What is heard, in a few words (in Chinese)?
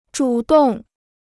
"主动", Chinese